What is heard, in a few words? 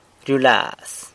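"ryulaz", San Lucas Quiaviní Zapotec; likes (a person)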